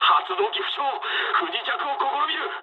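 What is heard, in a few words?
Japanese damaged engine mood low; War Thunder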